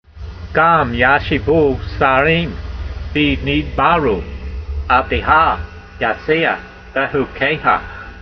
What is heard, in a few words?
voice, hebrew language; Psalm 119:23; gam ya'she'voo sa'reem bee needbaroo, avdeha yasee'ah behukeyha